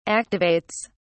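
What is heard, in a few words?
"activates", English, British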